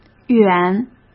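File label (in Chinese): "远", Mandarin Chinese; hsk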